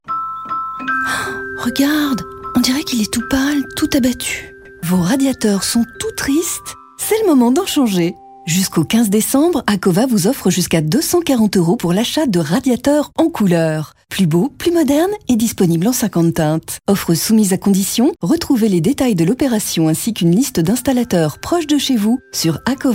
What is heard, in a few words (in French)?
Pub Radio